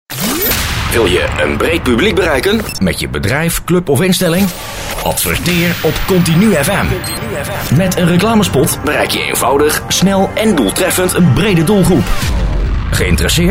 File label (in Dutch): Commercial werving adverteerders; Continu FM